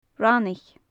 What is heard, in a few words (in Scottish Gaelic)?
"raineach", Gaelic; Faclan Nàdair